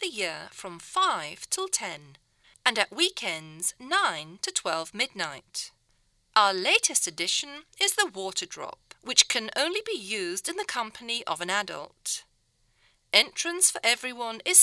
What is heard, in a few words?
Recorded message